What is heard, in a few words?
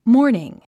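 "mourning", English word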